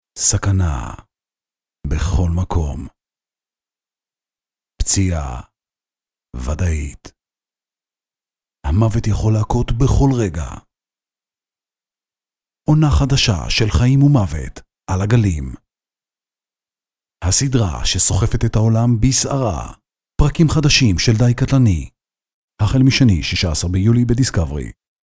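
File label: Hebrew, voiceovers other languages; foreign languages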